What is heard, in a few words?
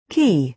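"key", American English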